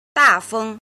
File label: "jin", Chinese